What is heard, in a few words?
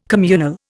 "communal", Indian